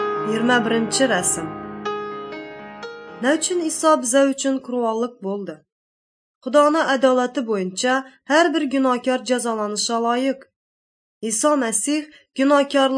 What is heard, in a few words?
uzbek northern kipchak; good news